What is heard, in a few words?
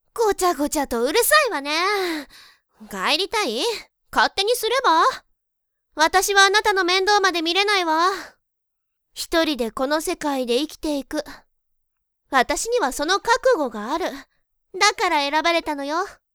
sample woman